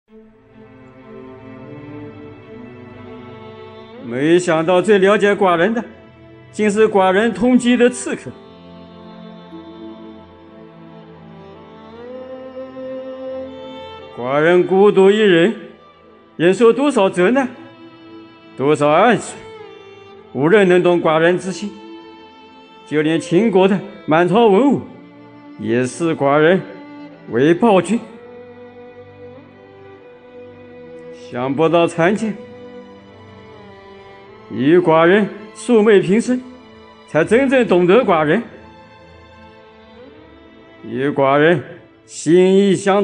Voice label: 电影英雄男主角配音

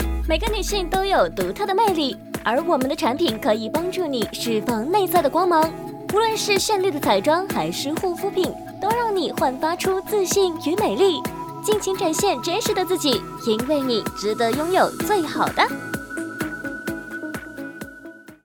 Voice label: 美妆品牌广告青春活泼

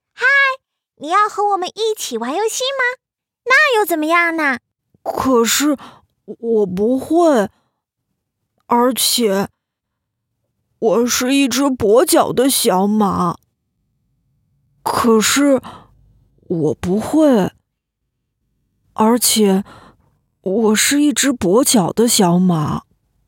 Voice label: 角色男童女童

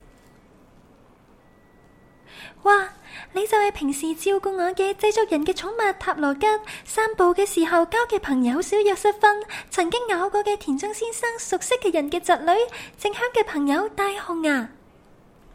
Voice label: 粤语少女动画配音

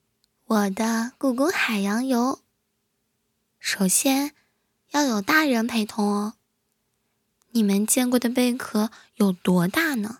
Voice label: 欢快

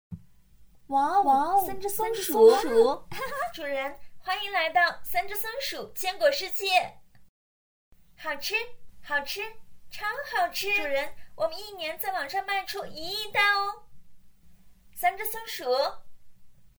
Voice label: 广告; 三只松鼠

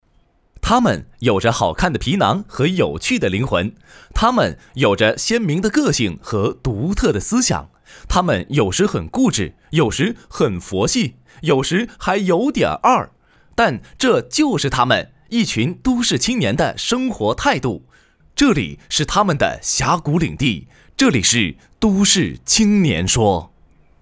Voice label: 节目版头; 都市青年说

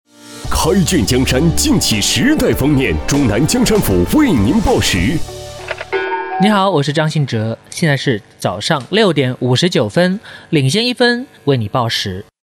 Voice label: 电台 报时; 中南江山府报时点分

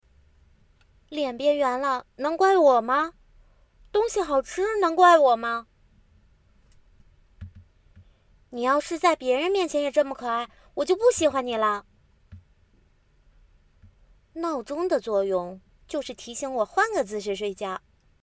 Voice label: 元气少女

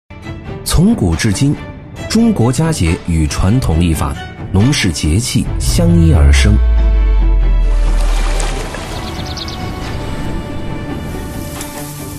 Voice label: 解说; 好味知时节

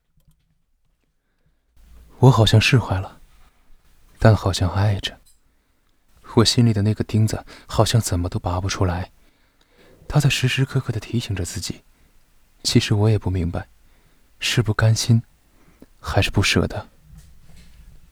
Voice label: 暖声

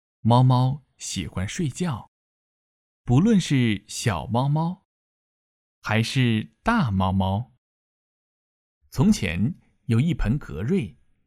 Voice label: 动漫旁白

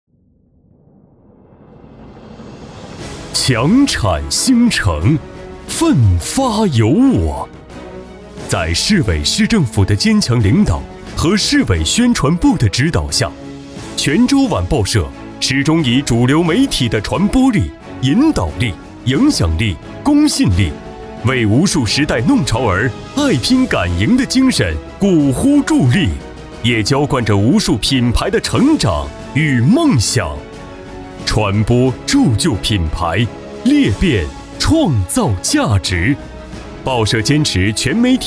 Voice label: 专题汇报大气有力

